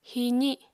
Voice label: "hiizi", apatani